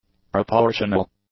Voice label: "proportional", english; adjectives